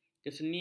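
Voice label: "kesenian", Malay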